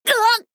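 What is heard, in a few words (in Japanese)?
「ぐあっ！」, 男の子 王道系少年主人公 戦闘ボイス ダメージ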